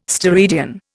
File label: "steradian", Indian